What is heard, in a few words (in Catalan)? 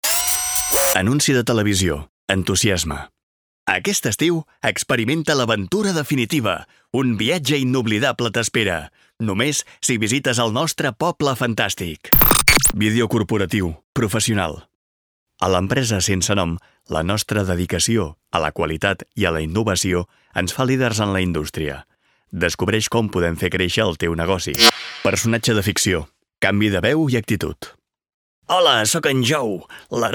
mostra veu catala